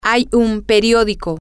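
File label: Spanish, there is a newspaper, female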